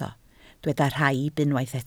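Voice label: "rhaib" Welsh, spoken word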